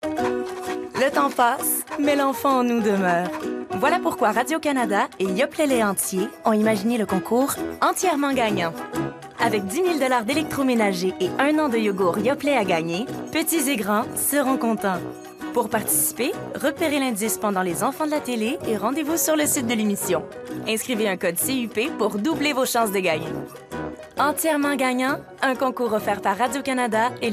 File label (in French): voix femmes, concours